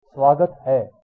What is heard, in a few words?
welcome, hindi; सवागत हैं; svāgat haiṅ